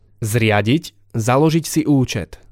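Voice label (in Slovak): "zriadit ucet", Slovak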